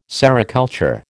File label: "sericulture", American